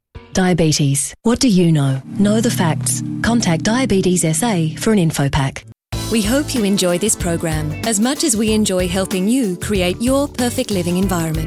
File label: Radio TV